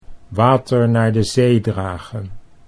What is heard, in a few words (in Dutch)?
"water naar de zee dragen", Dutch